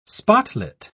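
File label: "spotlit", British English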